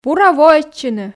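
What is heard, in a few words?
"бура воӧдчыны", Komi, female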